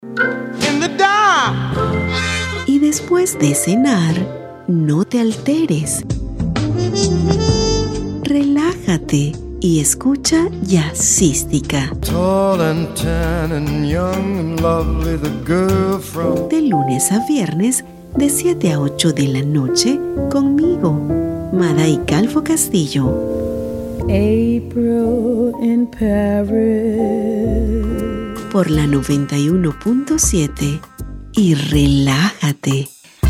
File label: latin spanish voice continuity radio